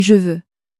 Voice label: "je veux", French

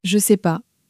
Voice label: "je sais pas", French; flashcards

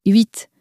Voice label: "huit", French

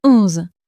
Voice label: "onze", French

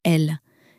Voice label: "elle", French

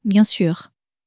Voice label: "bien sur", french

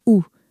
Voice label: "ou", French; question words pronouns

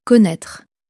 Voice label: "connaitre", French

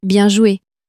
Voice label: "bien joue", french

